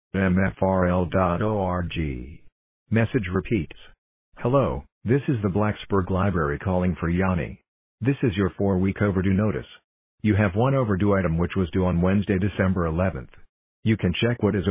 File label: Voicemail example